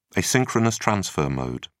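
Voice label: "asynchronous transfer mode", British English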